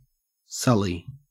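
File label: "sully", Australian English; pronunciations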